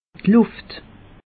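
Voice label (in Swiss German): "luft", Alsatian; meteo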